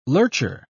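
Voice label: "lurcher", British English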